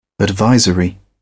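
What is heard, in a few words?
"advisory", British English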